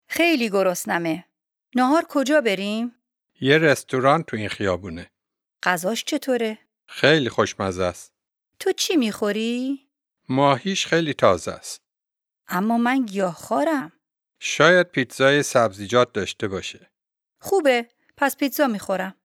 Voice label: Dialogue lesson Farsi